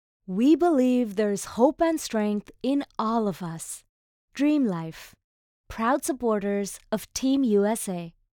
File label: Warm Inspirational